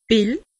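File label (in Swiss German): "pill", Alsatian; medecine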